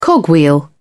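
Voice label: "cogwheel", British English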